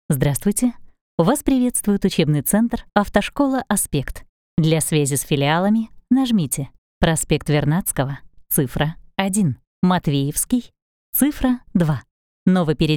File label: IVR no music